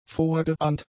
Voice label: "vorgeahnt", German; adjectives